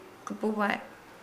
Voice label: "ankle", Nukuoro